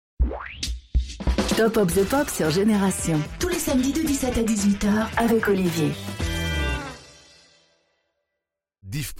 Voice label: Jingle Top of the Pop